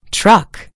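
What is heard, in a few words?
"truck", American English; gcompris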